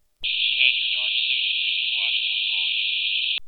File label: male; FILTERED SOUND; IIR and FIR Filters Laboratory